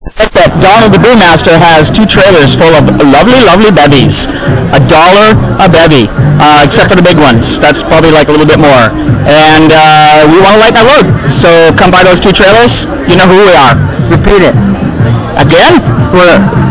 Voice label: beer ad